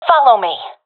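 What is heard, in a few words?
request follow me, professional female; vo; Counter Strike Model Pack; CS:GO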